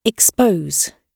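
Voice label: "expose", British English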